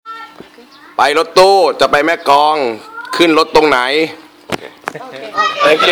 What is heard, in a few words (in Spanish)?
Maeklong en Thai